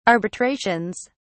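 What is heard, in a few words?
"arbitrations", British English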